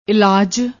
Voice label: "elaj", Punjabi; ਇਲਾਜ

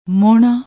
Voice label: "mohna", Punjabi

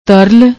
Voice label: "taral", Punjabi; ਤਰਲ

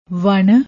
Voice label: "vann", Punjabi; ਵਣ

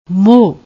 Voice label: "moh", Punjabi

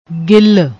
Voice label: "gil", Punjabi

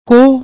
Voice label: "kohh", Punjabi

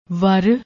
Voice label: "ver", Punjabi

